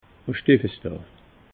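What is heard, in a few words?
"steffes daa", Alsatian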